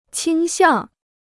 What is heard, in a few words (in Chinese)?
"倾向", Chinese